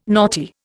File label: "naughty", Indian